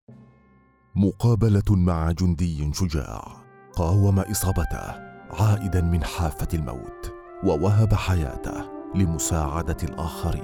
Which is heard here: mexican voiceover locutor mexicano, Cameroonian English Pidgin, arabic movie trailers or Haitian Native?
arabic movie trailers